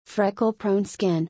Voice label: "Freckle prone skin", English; freckles-in-english

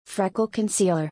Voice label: "Freckle concealer", English; freckles-in-english

